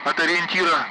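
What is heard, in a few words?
Speech Sound Russian Common Allied Flight; DCS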